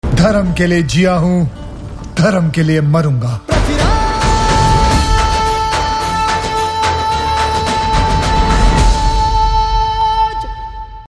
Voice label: Dialogue Ringtone; Prithviraj Teaser; Akshay Kumar